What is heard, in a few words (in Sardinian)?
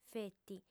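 "feti", female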